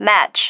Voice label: "match", female